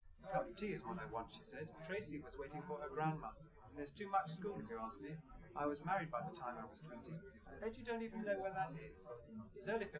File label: male, live rec; SiSEC11; underdetermined